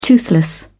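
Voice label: "toothless", British English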